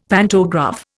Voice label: "pantograph", Indian; Dictionary